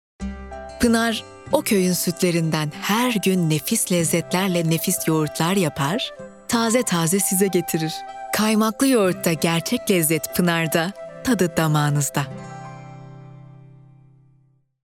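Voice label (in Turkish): sicak samimi, seslendirme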